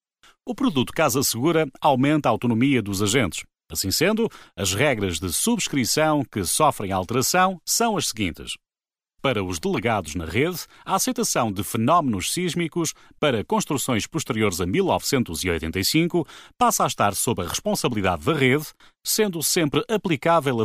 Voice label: portuguese europe male